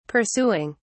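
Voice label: "pursuing", British English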